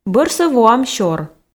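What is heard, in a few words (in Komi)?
бӧрсӧ воам сёр, Komi, female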